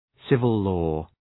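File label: "civil-law", English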